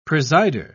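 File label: "presider", British English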